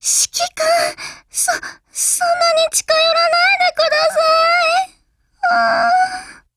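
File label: DIALOGUE, Japanese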